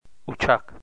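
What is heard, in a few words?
"ucak", Turkish, male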